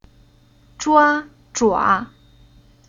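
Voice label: "Zhua", chinese syllable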